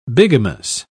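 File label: "bigamous", English, British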